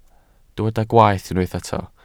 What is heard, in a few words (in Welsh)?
"gwaeth", Welsh